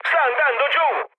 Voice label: aircraft destroyed, mood med, speech it

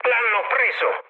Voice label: aircraft destroyed, mood med, speech it

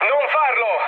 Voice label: on fire, mood low, speech it; War Thunder

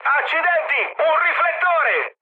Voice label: searchlite, mood high, speech it; War Thunder

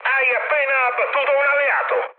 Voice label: player killed ally, mood med, speech it

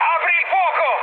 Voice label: attack position, mood high, speech it

